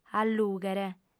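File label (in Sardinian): "allùghere", lingua sarda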